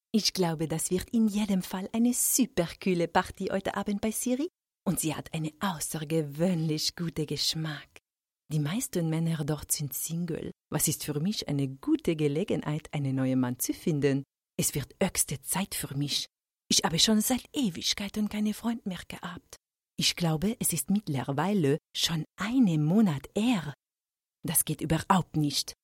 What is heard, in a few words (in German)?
Akzent Franzoesisch